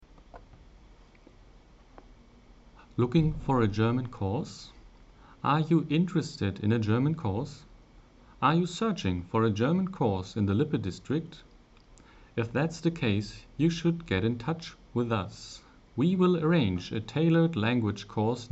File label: Flyer english